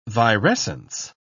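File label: "virescence", English, British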